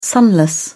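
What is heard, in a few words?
"sunless", English, uk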